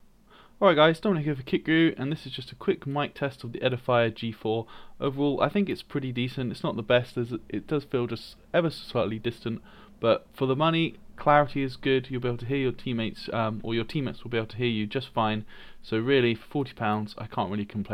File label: mic test